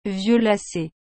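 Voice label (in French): "violacée", French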